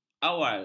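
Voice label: "awal", Malay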